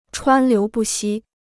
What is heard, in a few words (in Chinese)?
"川流不息", Chinese